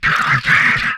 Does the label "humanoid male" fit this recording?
Yes